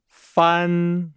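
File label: "fan", Chinese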